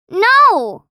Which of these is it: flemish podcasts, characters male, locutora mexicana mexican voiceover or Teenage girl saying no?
Teenage girl saying no